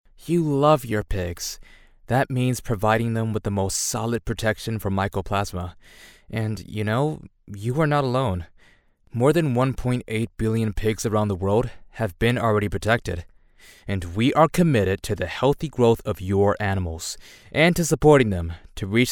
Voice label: locutor norteamericano young english american voiceover; locutor US, USA voice over